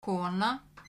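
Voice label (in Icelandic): "kona", Icelandic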